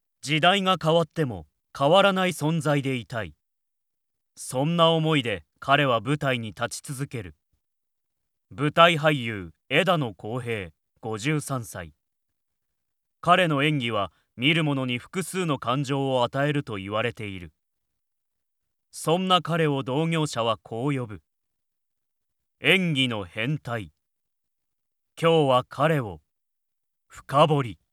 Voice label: male; 堂々とした